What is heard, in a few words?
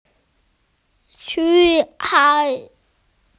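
"like", Mandarin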